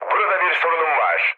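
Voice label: aircraft damaged, mood low, Turkish; War Thunder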